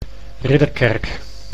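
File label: "Ridderkerk", Dutch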